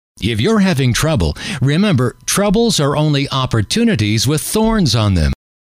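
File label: if you are having trouble, male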